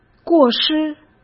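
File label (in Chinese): "过失", Mandarin Chinese; hsk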